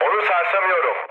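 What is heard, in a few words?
aircraft damaged, mood low, Turkish; speech; War Thunder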